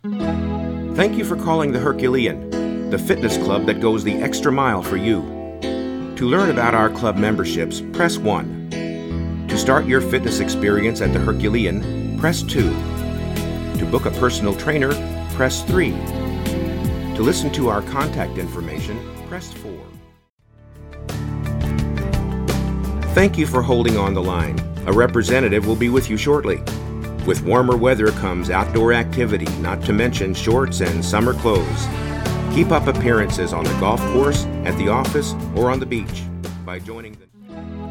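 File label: Telephone, Voice Actor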